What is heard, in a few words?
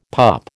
"pup", American